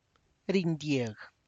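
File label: tlacochahuaya, Zapotec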